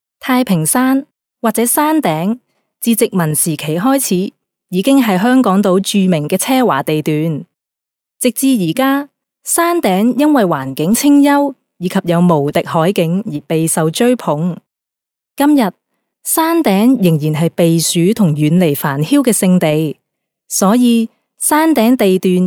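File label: Cantonese female corporate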